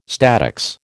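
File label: "statics", American English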